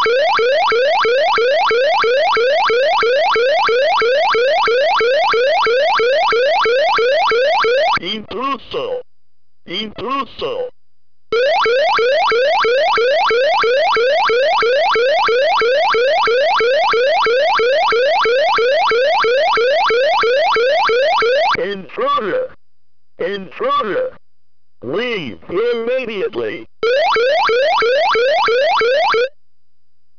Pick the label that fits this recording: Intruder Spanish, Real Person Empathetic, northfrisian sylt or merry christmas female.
Intruder Spanish